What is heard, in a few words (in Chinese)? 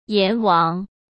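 "阎王", Mandarin Chinese; hsk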